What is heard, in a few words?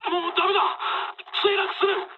aircraft destroyed mood low, speech jp; War Thunder